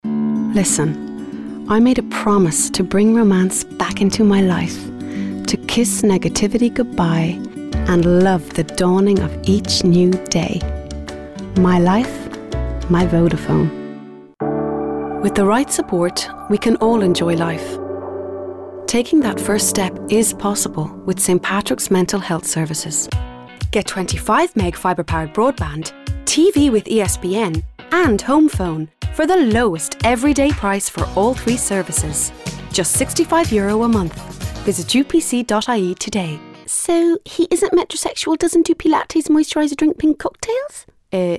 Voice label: voice demo